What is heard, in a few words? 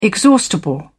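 "exhaustible", British English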